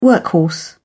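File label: "workhorse", British English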